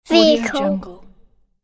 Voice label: boy says "vehicle"

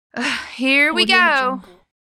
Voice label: female saying reluctant here we go

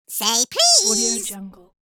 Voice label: cartoon voice say please

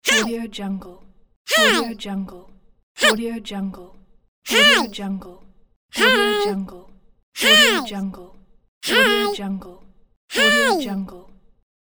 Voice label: minion voice "hi"

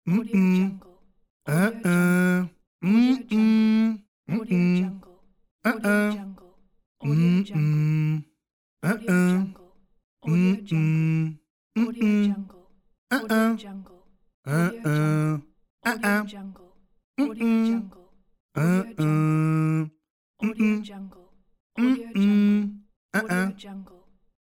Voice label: man negation pack

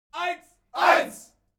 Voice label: human crowd military shout german one; smartsoundfx

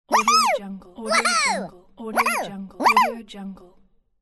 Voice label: woo hoo cartoon voice pack

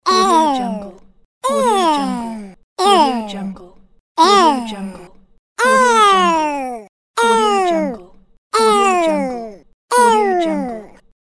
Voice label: cartoon voice ewww pack

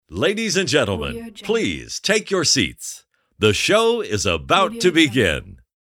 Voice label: announcer the show is about to begin

